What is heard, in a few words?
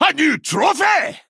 Male Dwarf Attack